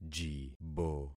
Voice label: "jibo", concat syllables; Affixing experiment